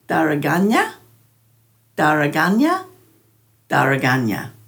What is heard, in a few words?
"dharaganja", Dhurga